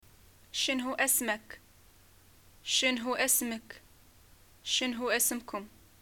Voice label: what is ur name, Arabic

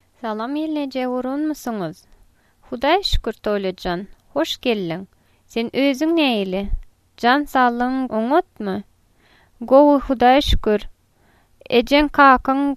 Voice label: greetings, turkmen

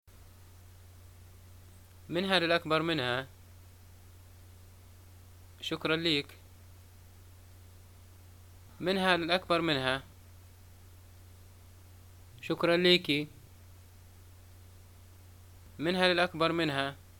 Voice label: greeting job, arabic, ly

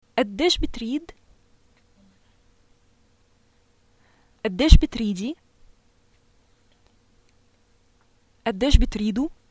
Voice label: how much do i owe you, arabic, sy

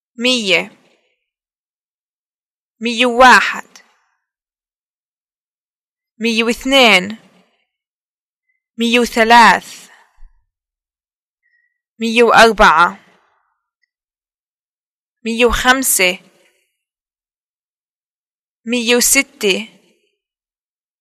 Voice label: numbers 10 100, arabic, pl

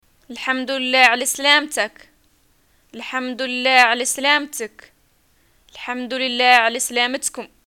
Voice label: greeting arrival, arabic, ws

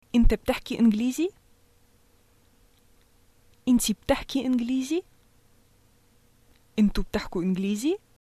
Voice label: do you speak english, arabic, sy

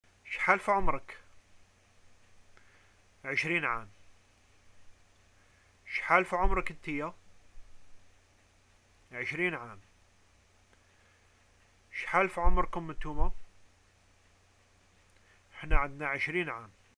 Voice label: how old r u, arabic

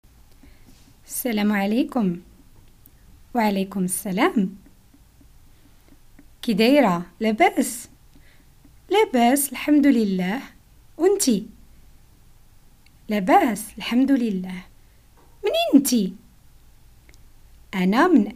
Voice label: encounter, moroccan arabic dialogues

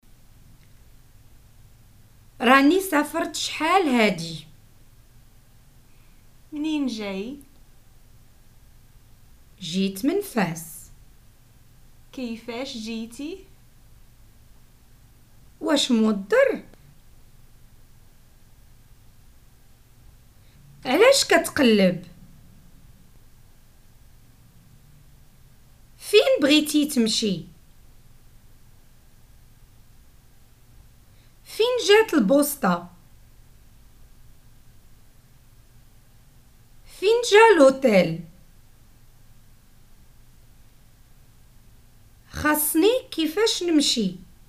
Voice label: prompts, Moroccan Arabic

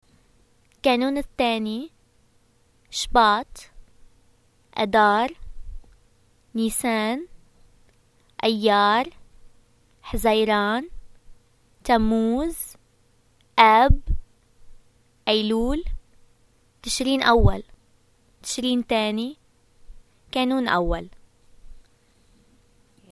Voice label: months, arabic levantine

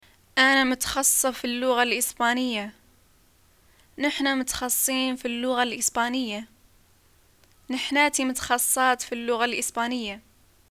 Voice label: "getacqua studying spanish", arabic

